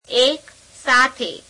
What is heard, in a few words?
gujarati together; એક સાથે [ek seāthe]